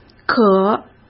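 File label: "渴", Mandarin Chinese; chinese flashcards; hsk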